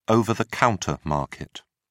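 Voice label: "over-the-counter market", British English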